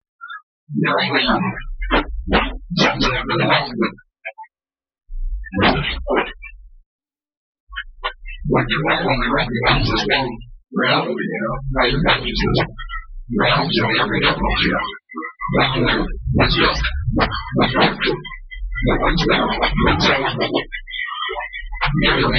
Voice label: dialogue distort plaster